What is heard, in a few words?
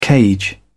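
"cage", English, British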